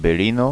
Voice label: "belina", Occitan